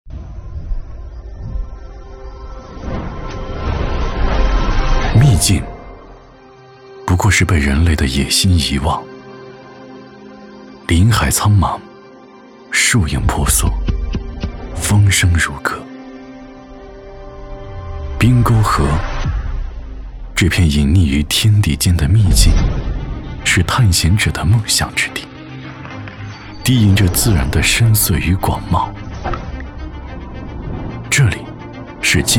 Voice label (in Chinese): 男 走心; 何以武威